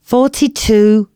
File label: announcements female